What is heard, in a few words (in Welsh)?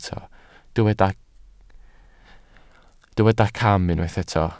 "cam", Welsh